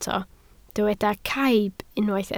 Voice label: "caib", Welsh